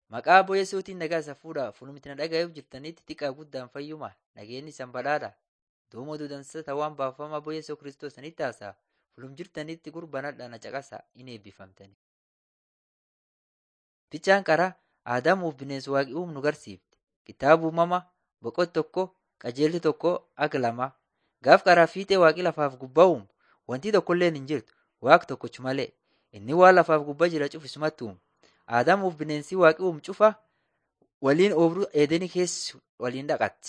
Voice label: borana sakuye